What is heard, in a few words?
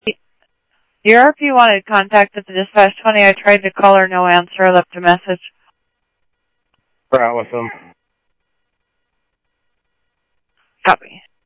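Mesa Simulcast Police Department; MESA PD 2 Centrl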